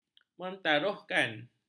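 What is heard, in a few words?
"mempertaruhkan", Malay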